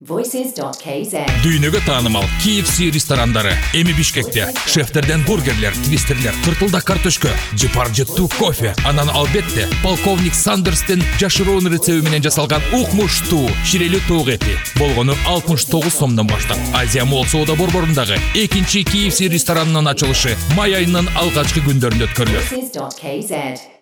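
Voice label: Kyrgyz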